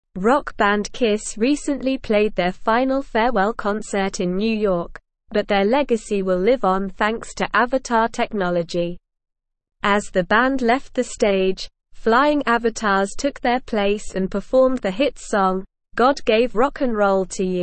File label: English Newsroom Advanced SLOW Reading